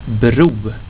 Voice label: bro, swedish; plaatsen